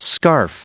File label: "scarf", en-us recite